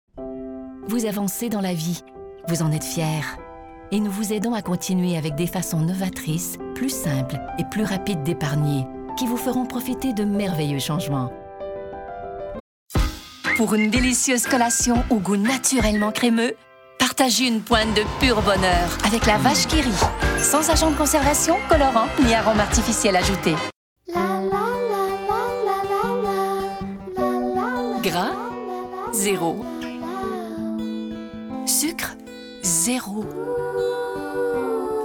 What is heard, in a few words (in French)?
annonceur